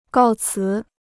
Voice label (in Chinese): "告辞", Chinese